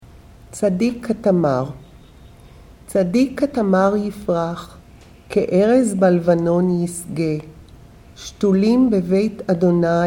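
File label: Tzadik Katamar, female; Shabbat Evening Readings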